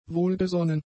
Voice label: "wohlgesonnen", German; adjectives

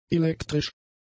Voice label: "elektrisch", german; adjectives

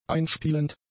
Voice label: "einspielend", german; adjectives

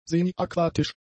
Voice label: "semiaquatisch", German; adjectives

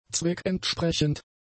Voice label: "zweckentsprechend", German; adjectives

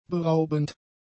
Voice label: "beraubend", German; adjectives

